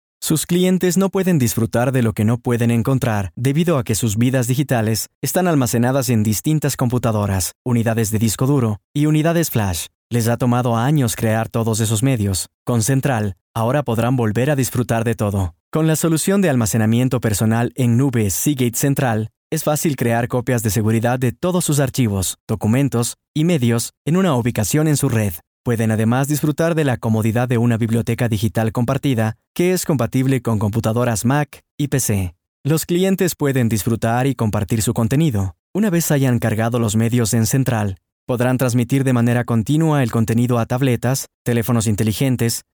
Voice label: spanish latin american neutral training e-learning